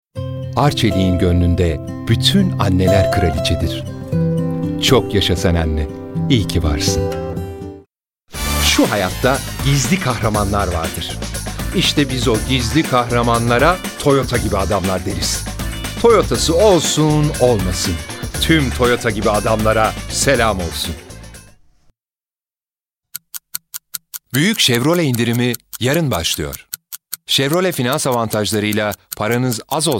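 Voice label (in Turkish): turkish male commercial; voice demos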